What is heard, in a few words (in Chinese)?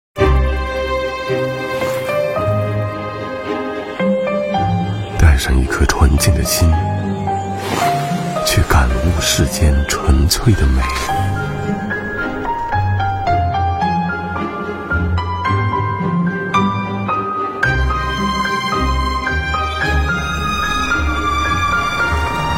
广告 食品饮料 温暖; 怡宝